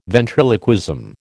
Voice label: "ventriloquism", American